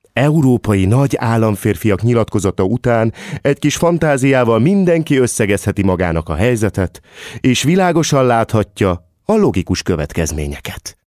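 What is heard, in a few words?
Hungarian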